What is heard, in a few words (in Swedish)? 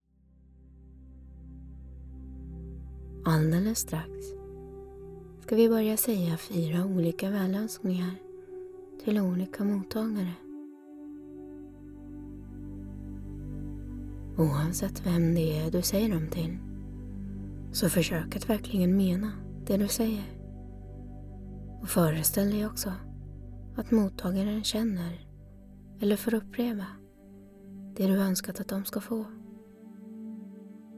Metta meditation med mina favoritfraser; Andra utgavan